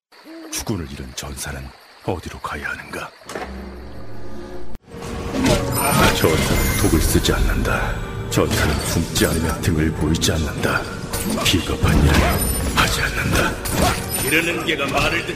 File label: Video Game Korean